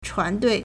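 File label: "chuánduì", Chinese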